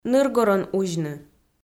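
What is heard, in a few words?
"ныргорӧн узьны", Komi, female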